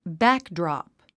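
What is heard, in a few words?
"backdrop", English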